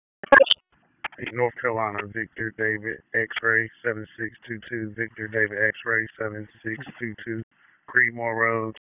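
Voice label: Sheriff's Office Dispatch; WSO DISP 1; wake; p25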